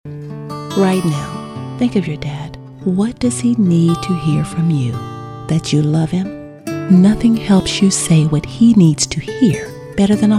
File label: Heart felt voiceover